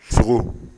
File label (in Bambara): "sogo", Bambara